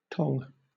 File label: "tong", UK